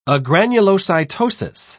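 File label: "agranulocytosis", British English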